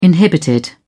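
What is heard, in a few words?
"inhibited", British English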